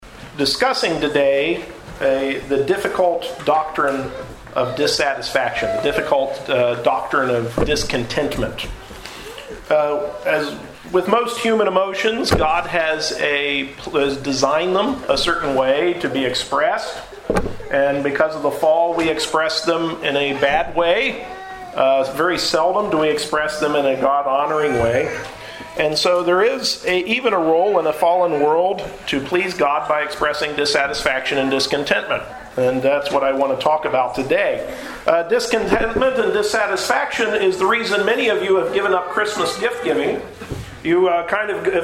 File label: Holiday Message; Dissatisfaction